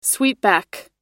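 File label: "sweepback", English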